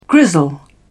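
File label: "grizzle", British English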